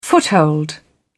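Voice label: "foothold", British English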